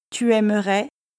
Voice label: "tu aimerais", French